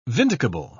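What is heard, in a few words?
"vindicable", English, British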